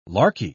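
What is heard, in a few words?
"larky", British English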